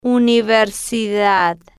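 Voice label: "universidad", Spanish, female, slow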